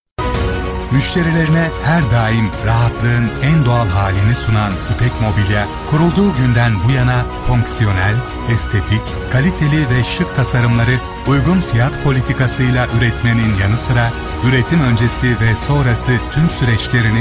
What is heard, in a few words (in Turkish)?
dinamik